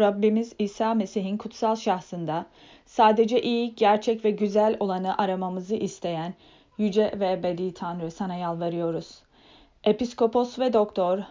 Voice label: internet prayer turkish